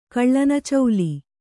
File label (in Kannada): "ಕಳ್ಳನಚೌಲಿ", kannada